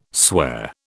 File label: "swear", British